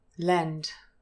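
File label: "lend", English, DRESS /e/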